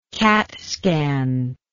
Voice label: "catscan", English

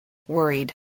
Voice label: "worried", voice, english

